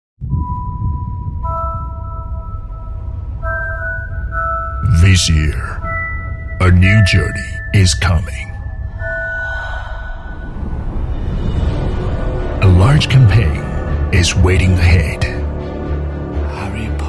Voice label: 英男, 电影片头, 预告片片头

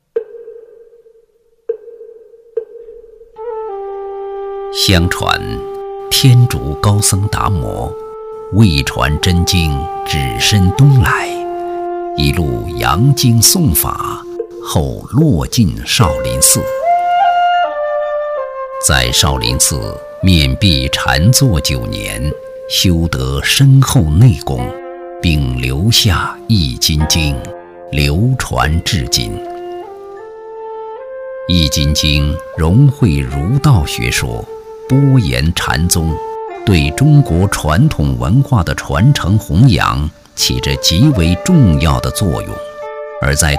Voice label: 男 厚重 典雅 禅意悠悠, 国语男声配音; 易筋经

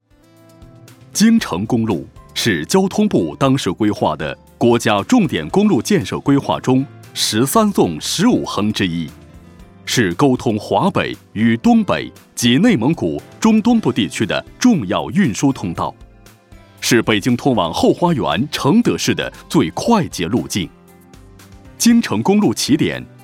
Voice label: 男 汇报片; 京承公路完成

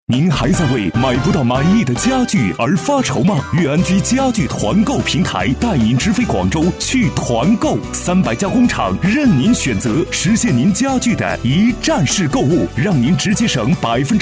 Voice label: 男, 激情促销, 家具; 悦安居家具

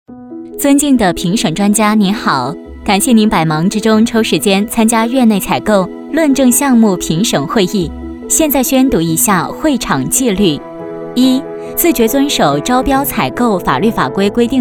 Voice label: 女 温柔 会场开场提示音

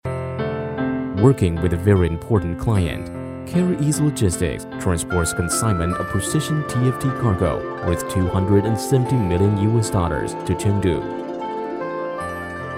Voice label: 英男 叙述型; 嘉里大通物流

